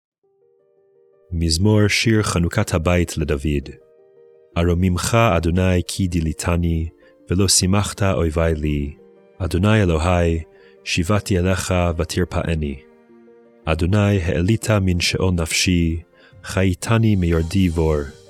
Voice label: poetry and prayer psalm hebrew